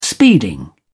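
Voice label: "speeding", English, British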